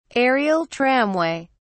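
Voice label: "aerial tramway", British English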